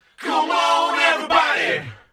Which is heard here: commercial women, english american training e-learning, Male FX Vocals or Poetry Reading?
Male FX Vocals